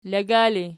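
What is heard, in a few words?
"laga" ergative, Archi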